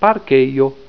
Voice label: "parkejo", esperanto

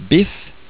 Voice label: "biff", Norwegian

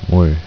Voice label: "water", korean

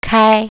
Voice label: "open", Mandarin

